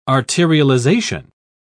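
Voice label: "arterialization", British English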